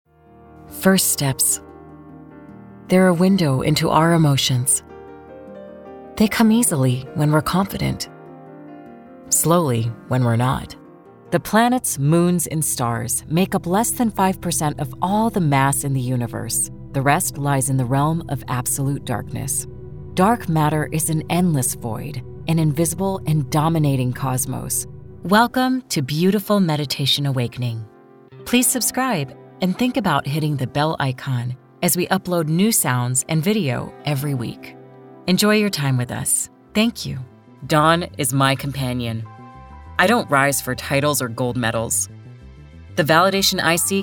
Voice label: VO MELLOW Meditative Introspective